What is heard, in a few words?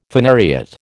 "phanariot", American